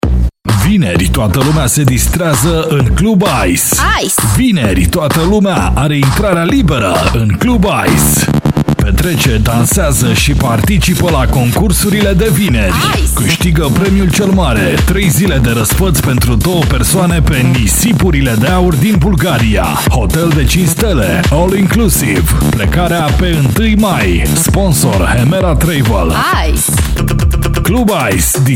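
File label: romanian male commercial; voice demos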